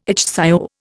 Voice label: "ichthyo", Indian